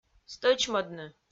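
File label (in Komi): "стӧчмӧдны", Komi, female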